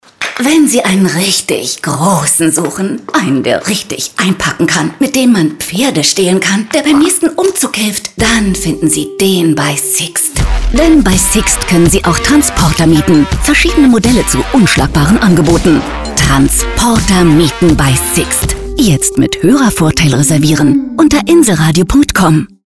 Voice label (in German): Transporter deutsch; SIXT